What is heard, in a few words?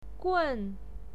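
"gun", Mandarin Chinese pinyin, fourth tone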